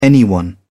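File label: "anyone", British English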